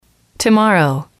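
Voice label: "tomorrow", English, female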